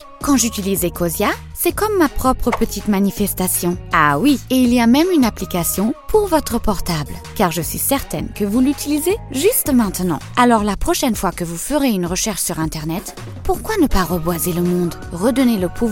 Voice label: SPOT; ECOSIA